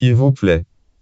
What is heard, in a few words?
phrase french "s'il vous plait"